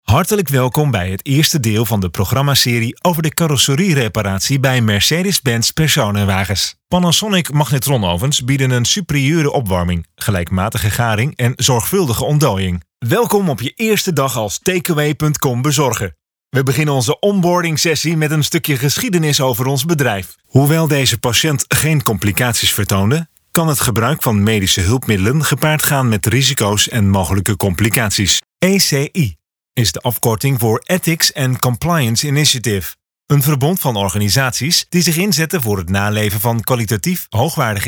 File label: e-learning